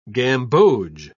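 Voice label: "gamboge", English, British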